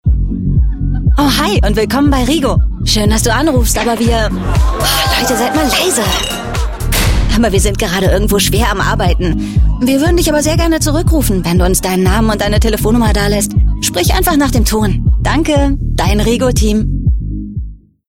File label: female german telephone comercial; ideasonora